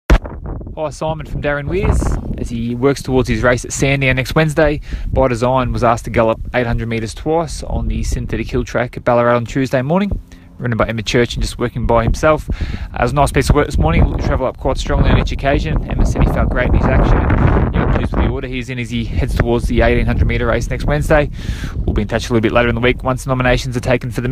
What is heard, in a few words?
voice note; By Design ARMANI